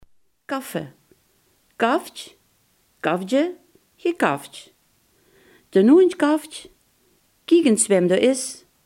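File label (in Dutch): "blaffen" Limburgish dialect word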